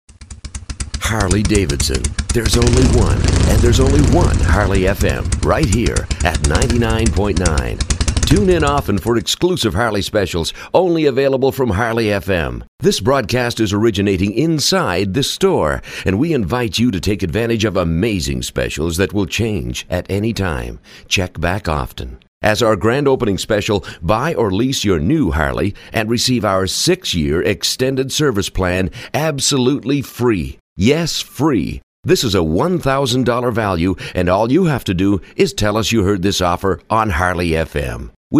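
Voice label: US English promotional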